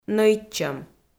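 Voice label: "нӧйтчӧм", Komi, female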